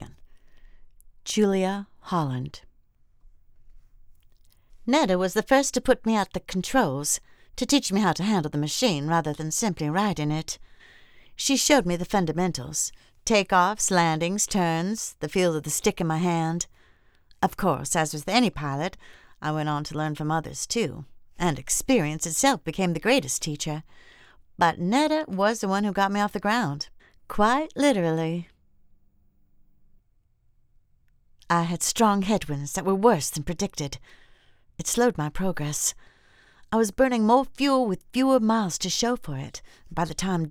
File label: Animation; Amilia Earhart